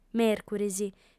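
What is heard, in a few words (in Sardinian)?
"mèrcuris", female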